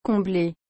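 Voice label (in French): "comblée", French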